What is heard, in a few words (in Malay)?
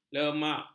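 "lemak", Malay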